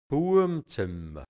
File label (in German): "Buabmzimmer", hianzisch Dialekt Burgenland